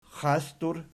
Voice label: "xasdur", Archi

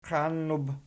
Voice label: "qiannub", Archi

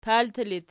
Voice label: "palti", Archi

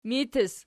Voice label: "mitis", Archi

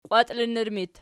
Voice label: "qwatiinnur", Archi

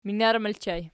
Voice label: "minar", Archi

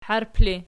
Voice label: "harp", archi

